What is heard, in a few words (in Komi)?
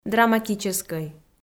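"драматическӧй", Komi, female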